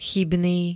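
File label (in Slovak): "chybný", Slovak; niečo nesprávne, omyl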